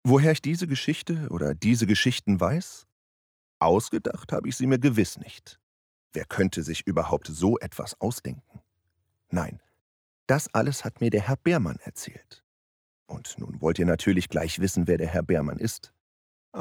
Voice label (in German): Sprecher Jugendbuch